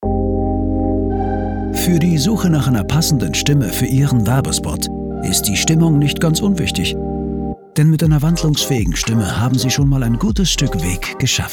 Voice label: Werbung mellow

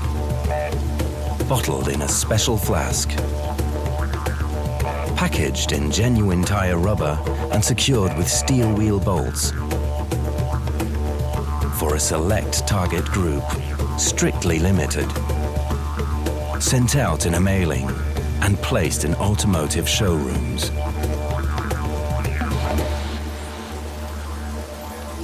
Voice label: en uk, goodyear rainwater; LOFT VOICES

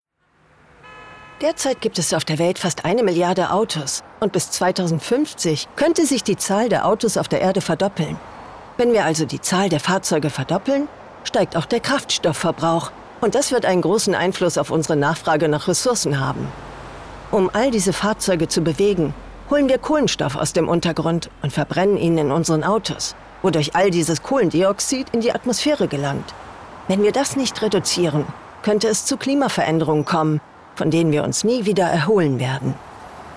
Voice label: Voice Over, Doku, Overlay, German; Zukunft Voice Over

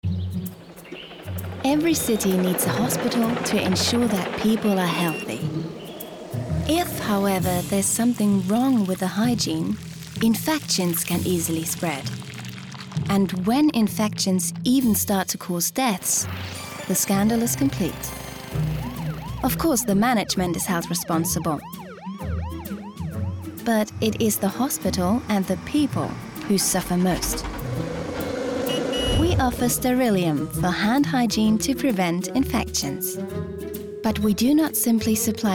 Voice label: audio english

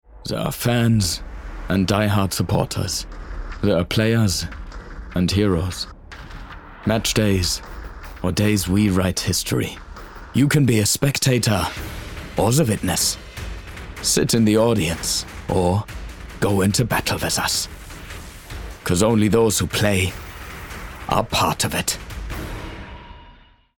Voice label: GERMAN ACCENT Football Matchday

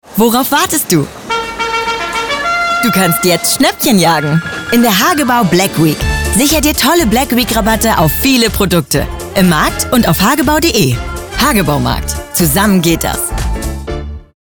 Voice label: Radio Commercial; Hagebaumarkt

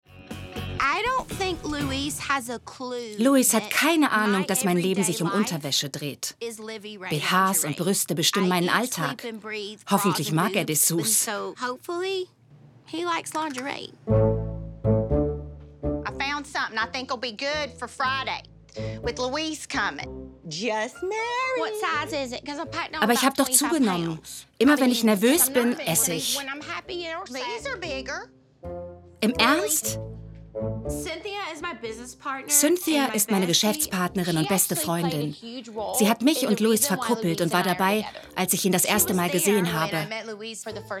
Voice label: voice over

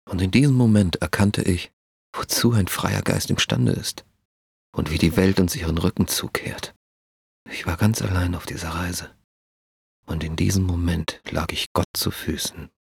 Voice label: Off Serious; LOFT VOICES

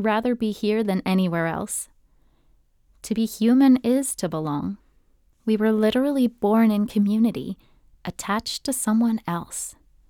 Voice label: Audio book Non fiction on Belonging

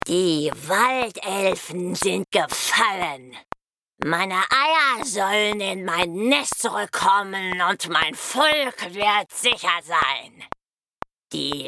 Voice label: Creature Medium

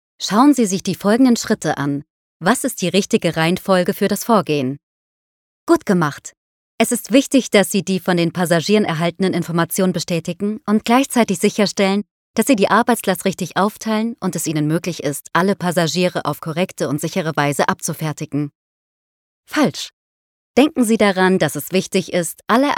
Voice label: E-Learning; Lufthansa Group; LOFT VOICES

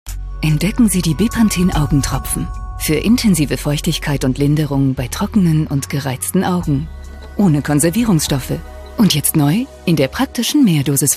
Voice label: tv spot; bepanthen; LOFT VOICES

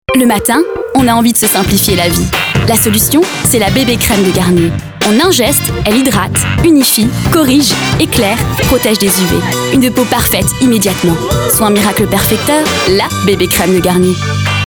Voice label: commercial

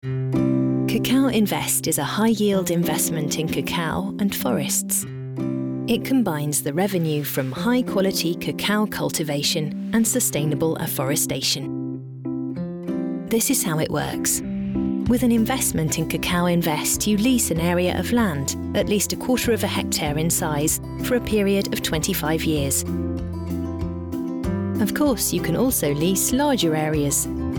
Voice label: engl; forest finance

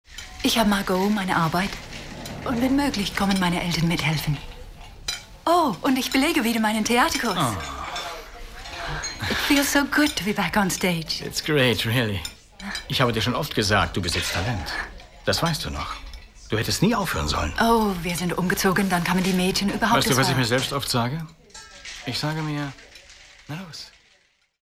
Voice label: sychron german us

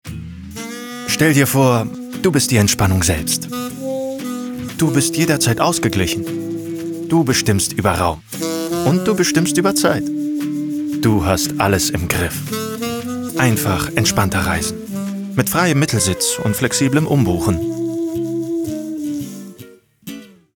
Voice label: Commercial Airline; LOFT VOICES

